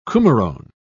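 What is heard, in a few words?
"coumarone", British English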